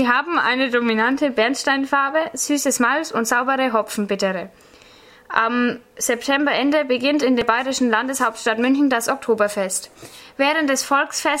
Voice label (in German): Bier deutsch